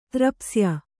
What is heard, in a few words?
"ತ್ರಪ್ಸ್ಯ", kannada